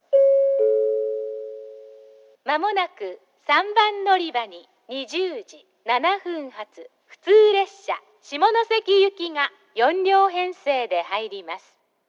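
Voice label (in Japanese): 3番のりば予告放送, 女声; 行橋; 普通 下関行き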